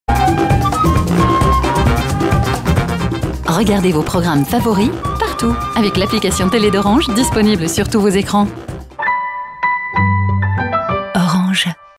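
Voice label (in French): pub voix off, voix off femme; orange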